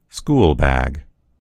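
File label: "school bag", American English; gcompris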